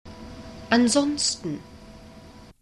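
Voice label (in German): "ansonsten", German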